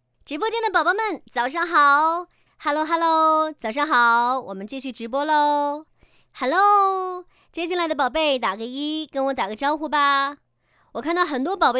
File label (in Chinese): 女,主播 虚拟主播